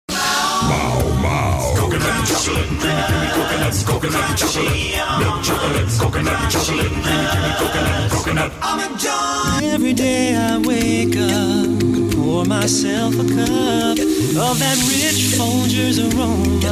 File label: american jingle